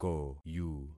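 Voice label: "koyu", concatenated syllables; Affixing experiment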